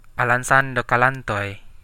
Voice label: good luck, centraldusun

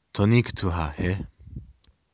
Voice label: how are you, lakota

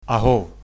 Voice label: hello, ukrainian

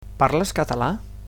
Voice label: "do you speak", Catalan; Parles català?

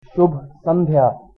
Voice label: good evening hindi

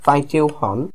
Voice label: how much, Welsh

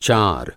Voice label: 4 Hindi; चार; (cār)

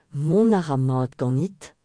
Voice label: how are you, breton

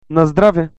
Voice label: cheers, bulgarian; Наздраве!; Nazdrave; Cheers/Good health!

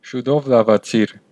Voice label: get well soon, armenian western; Շուտով լաւացի՛ր

